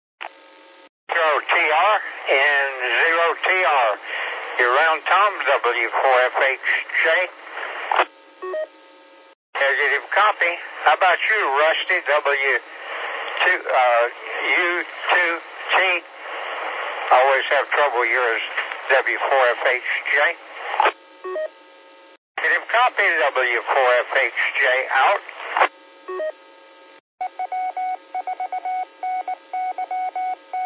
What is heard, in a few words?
qso rec simplex logic